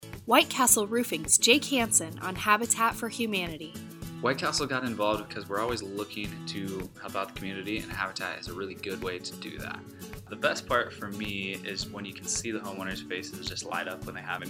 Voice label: radio spot; WCR Habitat 60 second